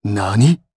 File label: Vox, jp; Shakmeh; kings raid